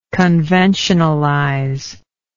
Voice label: "conventionalization", English

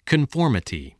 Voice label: "conformity", english

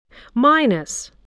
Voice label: "minus", voice, spelling

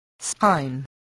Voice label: "spine", English voice

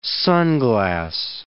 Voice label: "sunglass", English word

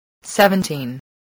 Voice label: "seventeen", spelling

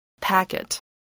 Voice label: "packet", English voice

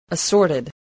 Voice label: "assorted", english

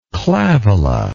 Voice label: "clavola", English

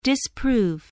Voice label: "disprove", spelling

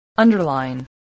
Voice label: "underline", English spelling voice

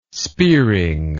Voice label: "spearing", english

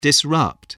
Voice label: "disrupt", spelling

